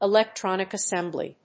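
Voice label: "electronic assembly", English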